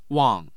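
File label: "wang4", Mandarin Chinese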